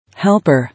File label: "Helper", English